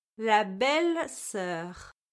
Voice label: "la belle-soeur", French

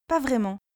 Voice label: "pas vraiment", French

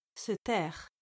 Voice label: "se taire", French

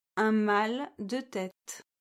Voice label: "un mal de tête", French

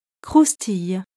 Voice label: "croustille", French

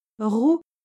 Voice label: "roux", French

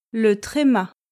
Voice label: "le tréma", French

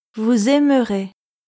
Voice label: "vous aimerez", French

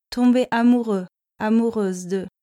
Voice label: "tomber amoureux amoureuse de", French

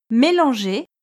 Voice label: "mélanger", French

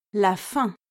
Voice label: "la fin", French

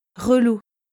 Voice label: "relou", French

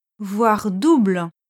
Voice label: "voir double", French

